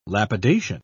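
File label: "lapidation", British English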